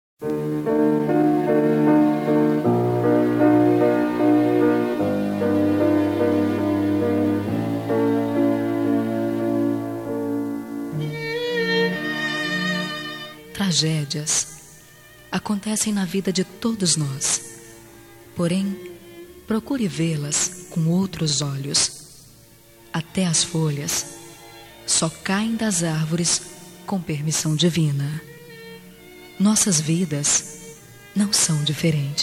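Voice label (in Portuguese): pesames fem, Telemensagens; Telemensagem Pêsames – Voz Feminina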